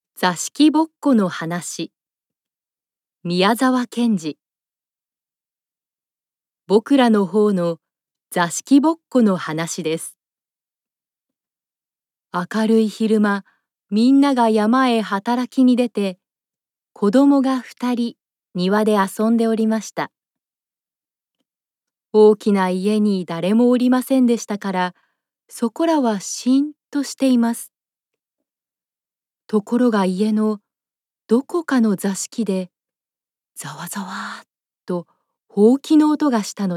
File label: japanese audiobooks